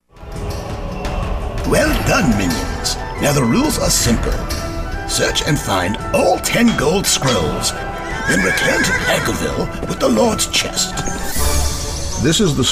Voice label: characters, Voice Over